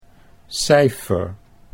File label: "cijfer", Dutch